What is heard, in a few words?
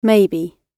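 "maybe", British English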